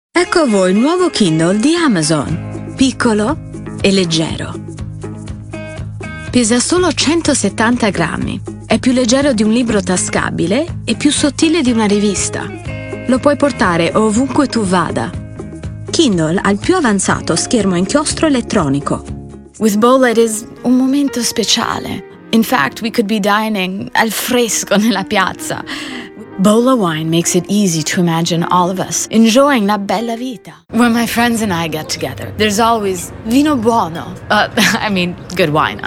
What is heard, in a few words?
italian commercial